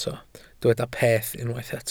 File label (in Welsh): "peth" Welsh